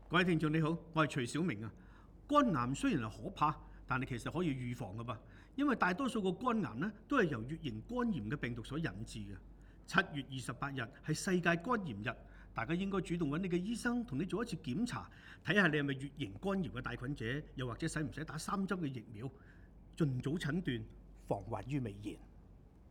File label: radio